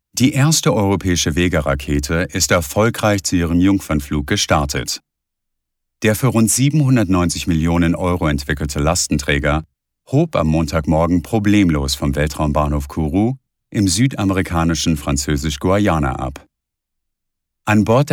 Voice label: german male